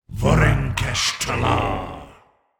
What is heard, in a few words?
incantation masculine demonic harm